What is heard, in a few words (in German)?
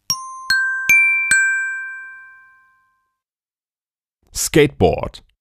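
"Skateboard", Deutsch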